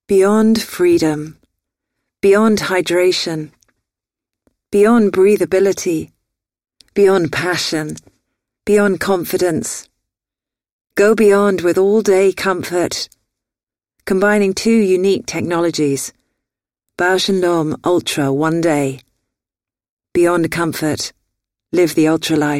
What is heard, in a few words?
UK English; Bausch Lomb